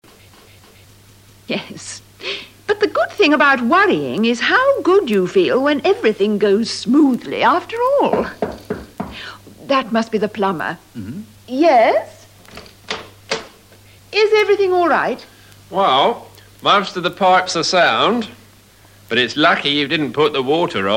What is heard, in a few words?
dialog